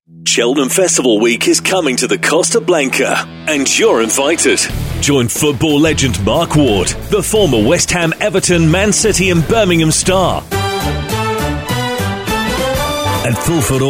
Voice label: COMMERCIALS